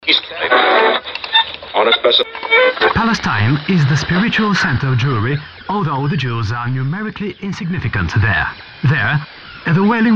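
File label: Bulgarian Radio; GoLocalise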